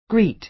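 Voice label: "greet", American English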